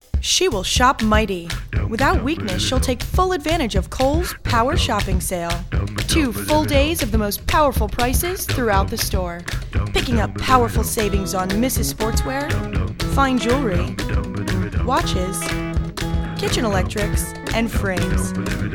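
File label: American commercial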